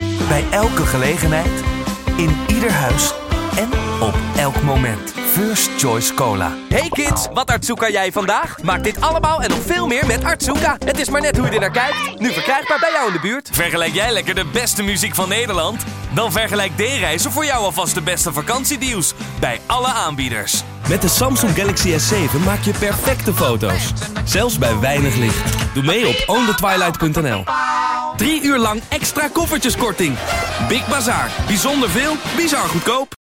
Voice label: NL Commercial